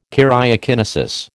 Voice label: "karyokinesis", American